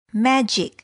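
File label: "magic", English word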